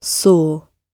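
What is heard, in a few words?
"soar", British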